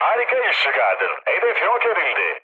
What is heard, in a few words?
bomb success, mood low, speech tur; War Thunder